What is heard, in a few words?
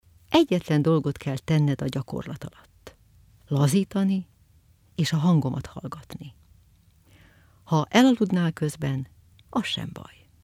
Meditation, hungarian